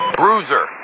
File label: Radio pilot Launch; Bruiser; the-universal-mission-for-dcs-world